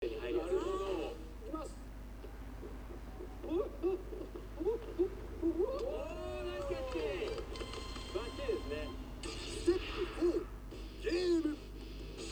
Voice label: mic record